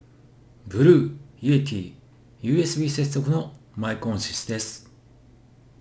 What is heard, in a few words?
maono pd200xs yeti